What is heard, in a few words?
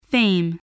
"fame", voice